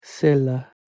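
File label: "selh1", Proto-Indo-European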